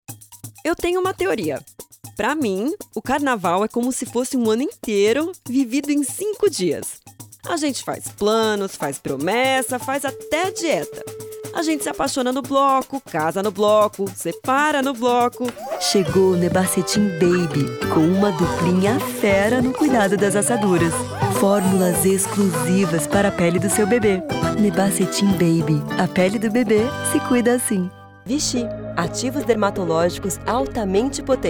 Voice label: female brazilian portuguese compilation